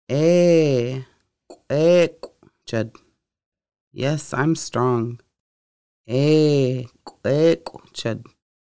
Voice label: Yes Im strong, conversational Lushootseed

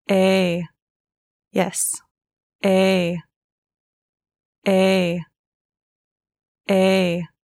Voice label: yes, Lushootseed; Basic Conversation Phrases

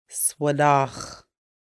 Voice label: mountain huckleberry, Lushootseed

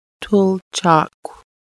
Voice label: from the water, Lushootseed

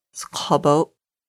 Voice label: milk, Lushootseed

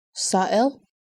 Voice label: "foul", Lushootseed

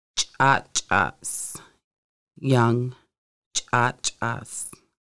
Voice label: young child, Lushootseed

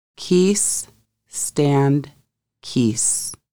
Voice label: stand "kiis", Lushootseed; Conversational Lushootseed: Lessons 1-5

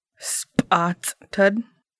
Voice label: "needles", Lushootseed

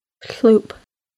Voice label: early, Lushootseed

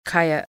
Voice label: "grandma", Lushootseed

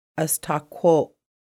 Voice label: thirsty, Lushootseed

